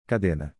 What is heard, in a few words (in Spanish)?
Cadena en Portugues